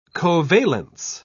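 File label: "covalence", British English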